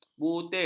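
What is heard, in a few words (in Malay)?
"butir", Malay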